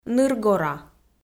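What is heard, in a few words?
"ныргора", Komi, female; audio dict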